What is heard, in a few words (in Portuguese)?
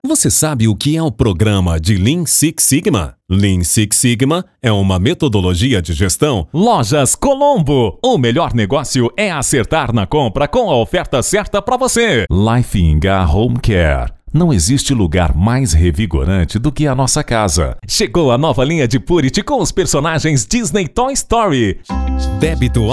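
VOZES MASCULINAS, Animada Informativa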